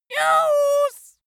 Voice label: dwarf scream news, voices

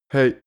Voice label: male hey short

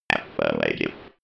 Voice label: robot voice affirmative

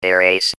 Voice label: "erase", voices

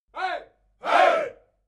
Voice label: military shout "hey"

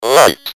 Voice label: "light", voices

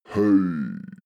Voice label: male hey low

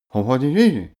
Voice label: male talk excited

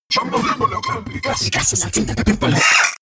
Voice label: monster glitch talk; kreaturen

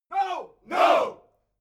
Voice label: military shout english "no"

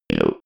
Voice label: robot voice no

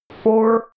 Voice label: robot voice four

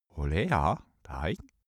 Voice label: male gibberish scandinavian

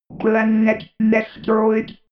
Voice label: robot voice planet destroyed

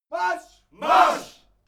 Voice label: military shout german "march"

